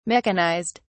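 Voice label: "mechanized", English, British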